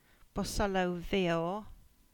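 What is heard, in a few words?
English; Bosullow Veor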